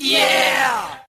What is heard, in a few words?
"yeah", group of men